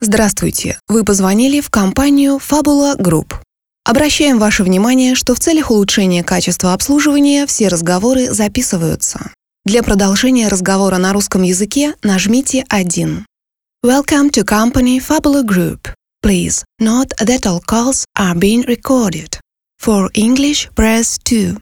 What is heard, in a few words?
IVR